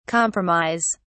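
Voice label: "compromise", English, British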